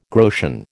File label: "groschen", American